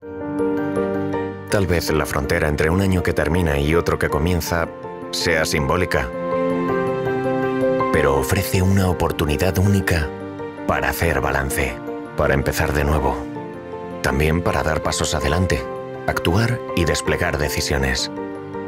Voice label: EU Spanish VO Corporate Video Felicitación de Navidad; Grupo Jorge